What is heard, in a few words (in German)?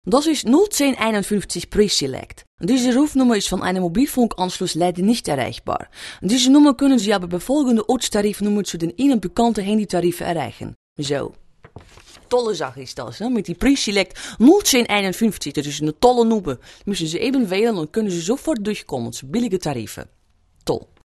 holländisch